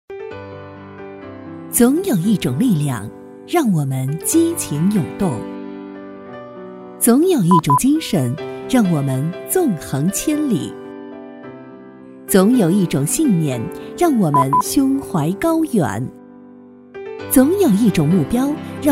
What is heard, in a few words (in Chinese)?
女-专题; 总有一种力量